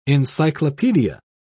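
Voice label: "encyclopaedia", British English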